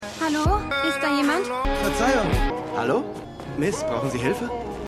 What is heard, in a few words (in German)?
Studentin Jogger